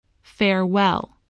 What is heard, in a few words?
"farewell", voice